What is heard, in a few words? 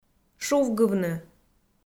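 "шувгывны", Komi, female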